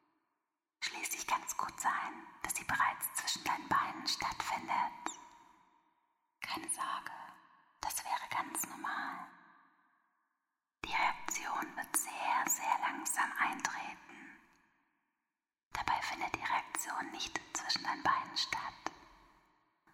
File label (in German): ASMR Hypnose, Eine erotische ASMR Hypnose; Flüstern